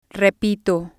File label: "repito", Spanish